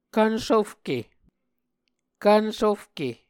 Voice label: "kvnsufke", Muscogee